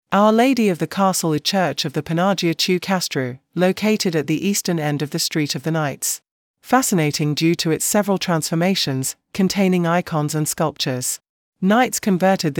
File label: English; Our Lady of the Castle Church Panagia tou Kastrou